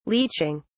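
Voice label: "leeching", British English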